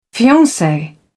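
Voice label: "fiance", English, British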